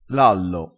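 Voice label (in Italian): "lallo", vocabolario dialettale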